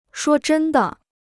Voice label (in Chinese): "说真的", Chinese